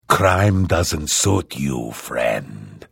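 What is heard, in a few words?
Hello, Vo; Morrowind GOTY GOG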